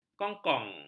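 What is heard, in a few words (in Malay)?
"kongkong", Malay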